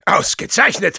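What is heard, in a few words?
positive vocalization, German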